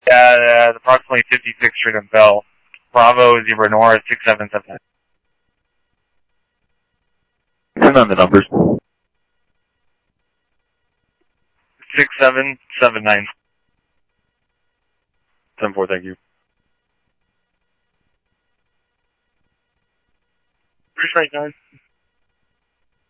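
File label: police department, scanner audio trunk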